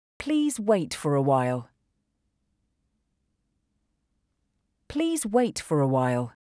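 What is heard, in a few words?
Please wait for a while, English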